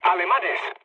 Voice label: enemy detected mood low; War Thunder